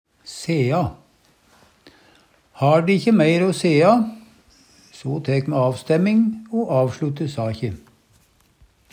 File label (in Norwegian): sea, Numedalsmål; Har de ikkje meir o sea, so tæk me avstæmming o avslutta sakje.